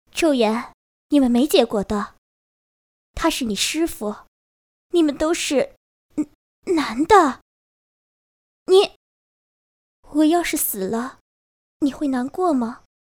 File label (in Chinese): 女